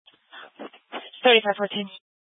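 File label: Police Dispatch, scanner audio recording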